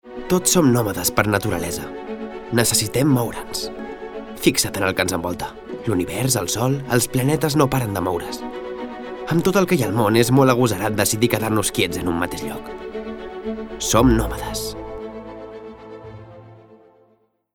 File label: male catalan comercial; ideasonora